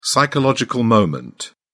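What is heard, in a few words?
"psychological moment", British English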